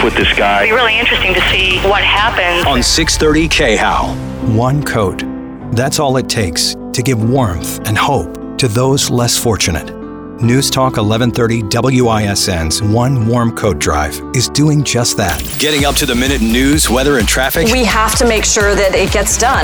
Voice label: radio imaging men newstalk